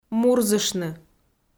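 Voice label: "мурзыштны", Komi, female; kpv audio dict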